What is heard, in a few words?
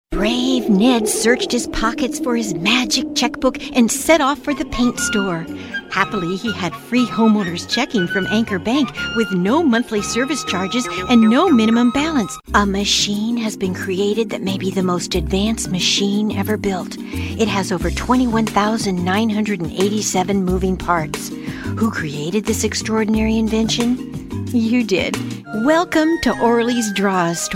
Commercial